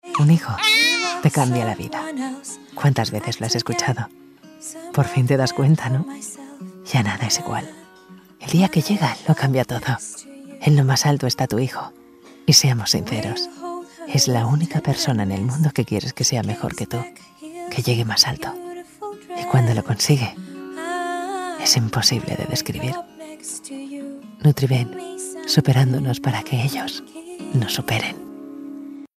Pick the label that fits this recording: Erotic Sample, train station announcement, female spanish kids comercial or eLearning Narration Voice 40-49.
female spanish kids comercial